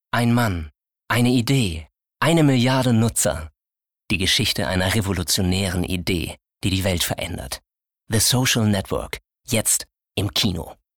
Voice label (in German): CASTING Werbung